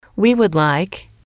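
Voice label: "we would like", English, female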